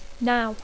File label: "now", English